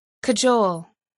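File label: "cajole", English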